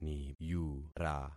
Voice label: "niyura", concat syllables; Affixing experiment